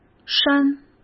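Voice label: "删" Mandarin Chinese; hsk; chinese-flashcards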